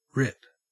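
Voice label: "rip", Australian English; pronunciations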